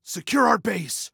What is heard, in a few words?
Base Secure, Male; Male 01